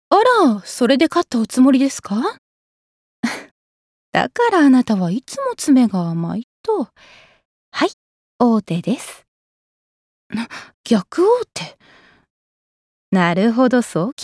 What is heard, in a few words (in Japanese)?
クールな棋士